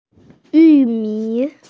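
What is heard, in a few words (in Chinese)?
"yu4mi3", Mandarin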